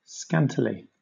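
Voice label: "scantily", British English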